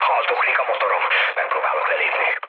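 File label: Hungarian damaged engine mood low, speech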